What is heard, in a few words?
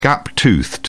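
"gap toothed", English, British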